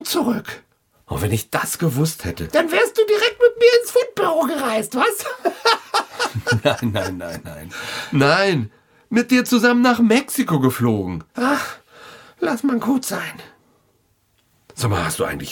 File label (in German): Hoerspiel